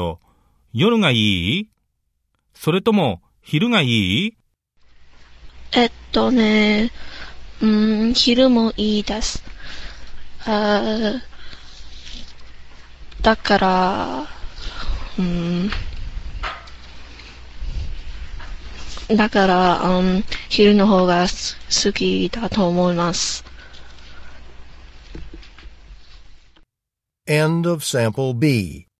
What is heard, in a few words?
japanese conversation